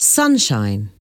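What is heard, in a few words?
"sunshine", English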